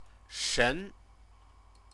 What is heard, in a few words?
"shen", Chinese